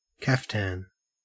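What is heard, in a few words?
"kaftan", Australian English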